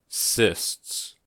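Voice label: "cysts", US English; pronunciations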